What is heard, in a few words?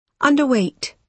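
"underweight", British English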